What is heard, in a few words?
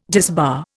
"disbar", Indian